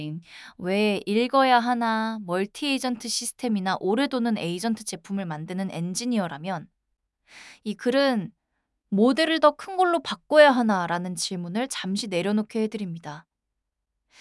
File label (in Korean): audiobook; graph engineering multi agent memory